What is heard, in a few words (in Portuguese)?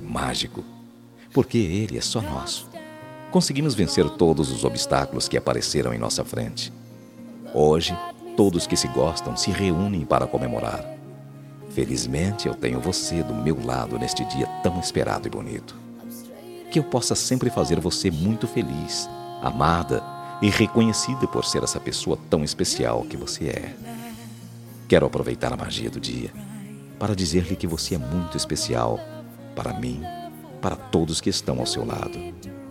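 NAMORADOS SUAVE male, telemensagem; telemensagem dia dos namorados suave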